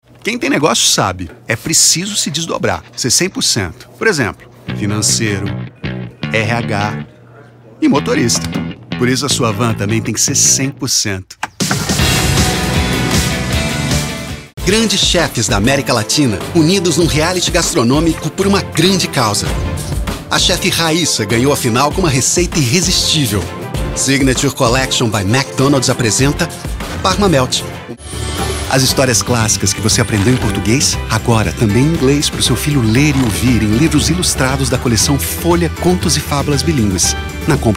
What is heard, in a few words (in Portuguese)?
rolo locuções voz jovem